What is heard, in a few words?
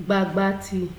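"gbagbati", Nigerian Pidgin, female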